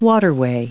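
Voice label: "waterway", US English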